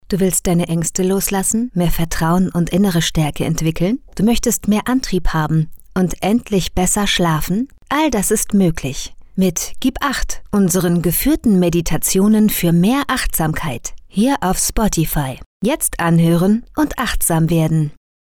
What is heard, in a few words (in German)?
Meditation; AOK